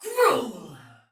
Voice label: Attack, Soldier Female, Battle; Sinecure